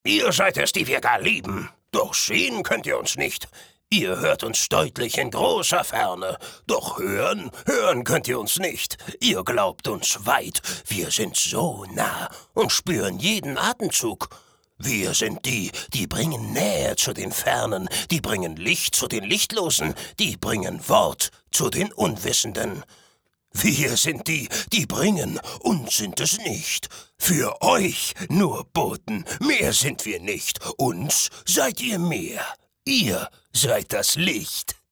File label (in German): charge, maenner